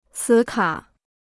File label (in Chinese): "磁卡", Chinese